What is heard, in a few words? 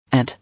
"Aunt", English